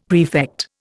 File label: "prefect", Indian; Dictionary